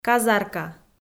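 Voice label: "казарка", Komi, female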